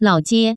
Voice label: "laojie", Chinese; taipei daytrip; sanxia; yingge; jinguashi